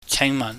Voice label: "chengmahn", cantonese

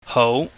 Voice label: "hou", chinese

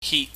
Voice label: "hit", chinese

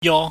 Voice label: "yo", pingyam

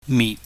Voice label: "mit", Chinese

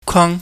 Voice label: "kwang", chinese

